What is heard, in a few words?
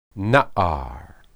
"naar", Hebrew